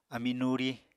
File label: "ami nuri", Apatani